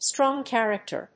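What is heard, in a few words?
"strong character", English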